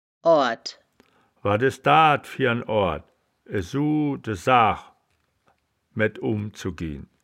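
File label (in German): "oard", Wittlicher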